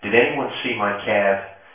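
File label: male reverberant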